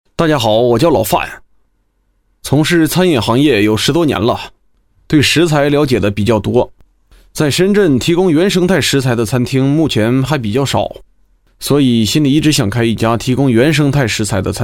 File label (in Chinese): 旁白 人物独白男 自然介绍